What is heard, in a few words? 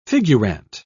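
"figurante", English, British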